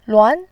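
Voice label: "luan", Mandarin, tone 2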